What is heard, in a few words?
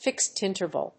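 "Fixed interval", English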